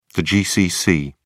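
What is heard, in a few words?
"gcc", British English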